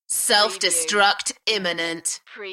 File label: UK female; beanstalk-audio